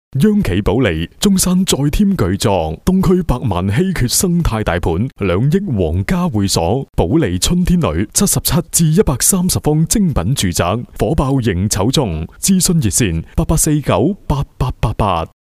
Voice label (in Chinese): 粤男; 保利春天里